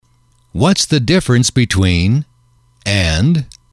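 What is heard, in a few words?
what is the difference between X and Y, male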